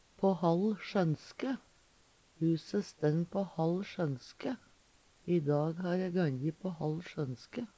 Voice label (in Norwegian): pa hall sjonske, Numedalsmål; på hall sjønske; Eksempel på bruk Huse stenn på hall sjønske. I dag ha dæ ganje på hall sjønske.